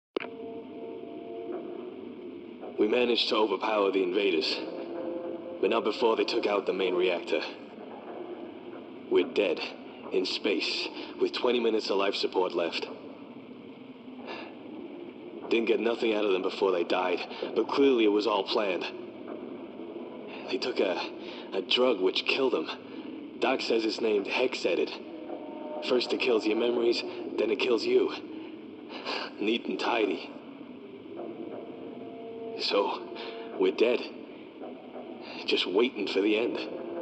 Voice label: MALE